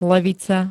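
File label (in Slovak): "levica", Slovak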